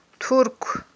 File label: "турк", Aghul